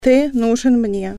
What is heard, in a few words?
i need you male, russian